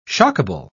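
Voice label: "shockable", British English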